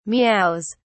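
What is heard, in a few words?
"meows", British English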